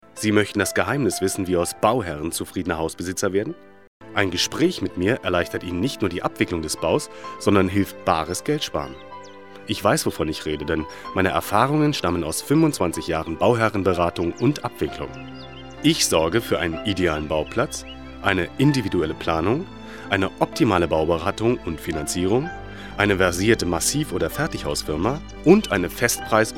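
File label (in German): deutsch, Telefonansagen männlich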